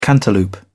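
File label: "cantaloupe", British English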